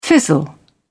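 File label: "fizzle out", British English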